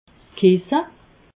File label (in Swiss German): "kisse", alsace